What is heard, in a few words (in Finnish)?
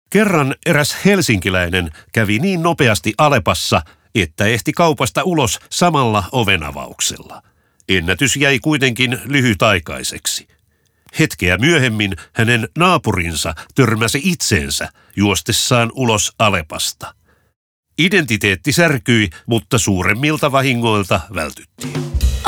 radio; alepa; kaupunginnopein